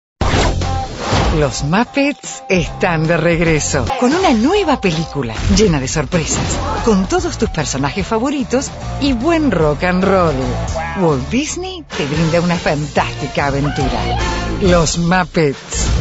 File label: Spanish Commercial